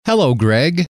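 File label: "hello", male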